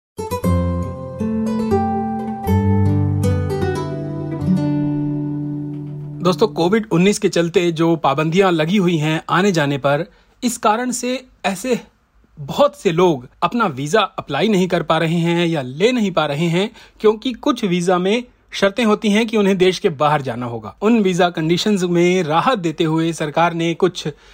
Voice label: hindi; SBS Hindi; 'Going to help in a big way': Onshore grants for partner and other family stream visas to start this month